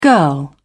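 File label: "girl", English, British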